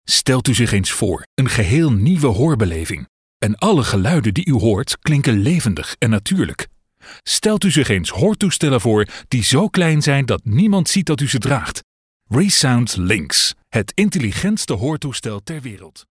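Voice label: Dutch, male, e-learning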